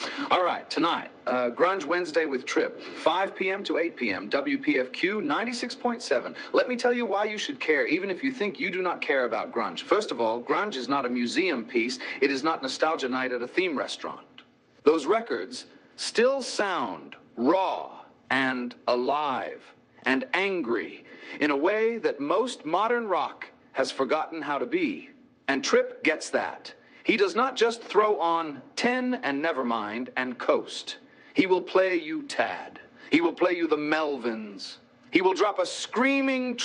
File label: promo; fever grunge wednesday